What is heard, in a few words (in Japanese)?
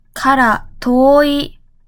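"から遠い", Japanese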